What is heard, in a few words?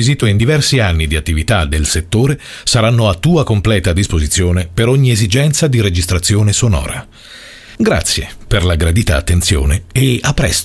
italian voice over male commercial